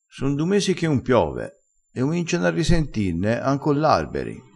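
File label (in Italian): "lesto", crespinese dialettale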